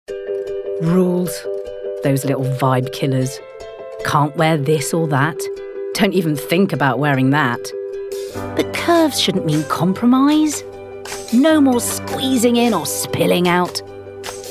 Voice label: Commercial